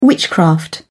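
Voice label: "witchcraft", English, British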